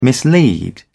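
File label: "mislead", British English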